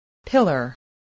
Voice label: "pillar", voice, English